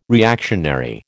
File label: "reactionary", American